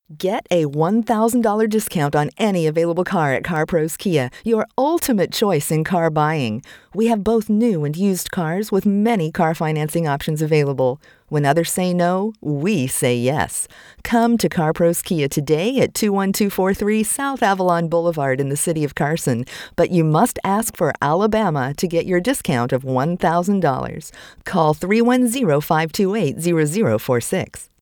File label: Ad